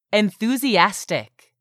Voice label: "enthusiastic", English; 3000 words